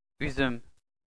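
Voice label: "uzum", Turkish, male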